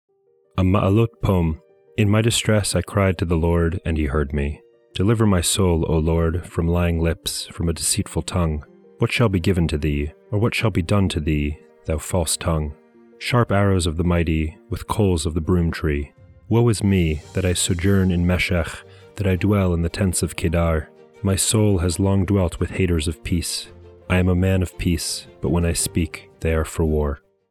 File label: poetry and prayer psalm english